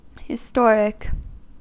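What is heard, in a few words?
"historic", American English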